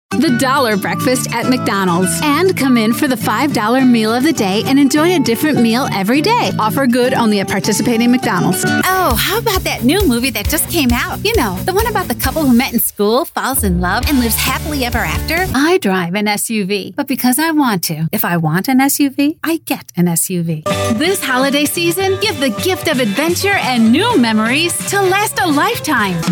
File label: Commercial